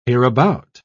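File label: "hereabout", British English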